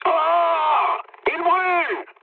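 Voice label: French on fire mood low; War Thunder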